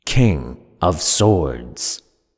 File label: King Of Swords Voiceover